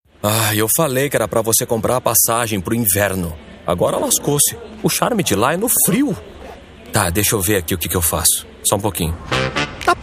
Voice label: portuguese brazilian commercial